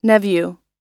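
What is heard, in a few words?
"octopus", us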